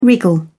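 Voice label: "wriggle", English, British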